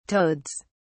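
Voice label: "toads", British English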